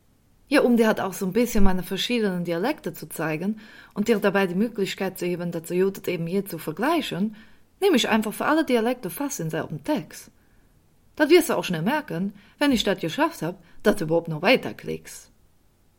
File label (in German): Sprachprobe Kölsch